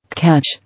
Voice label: "Catch", English